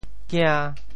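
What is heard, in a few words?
"kia~5", Teochew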